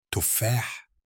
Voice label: apple in arabic